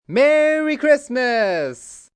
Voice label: Merry Xmas M, male; holiday; VoiceBox